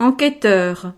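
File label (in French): "enqueteur", French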